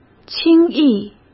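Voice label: "轻易", Mandarin Chinese; hsk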